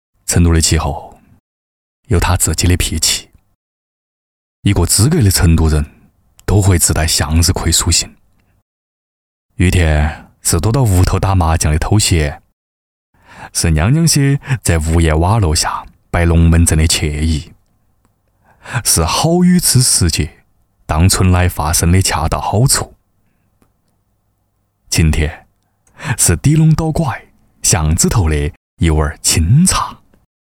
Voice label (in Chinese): 男 川话 校长语气